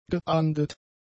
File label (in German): "geahndet", German; adjectives